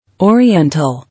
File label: "Oriental", English